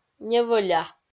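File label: "невӧля", Komi, female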